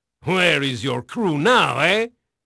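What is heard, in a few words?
Portuguese male, voice; Pirates Of The Caribbean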